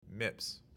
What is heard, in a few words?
MIPS pronounced